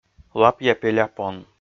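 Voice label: "лапъя пеля пон", Komi, male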